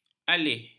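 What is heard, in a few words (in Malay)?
"alih", Malay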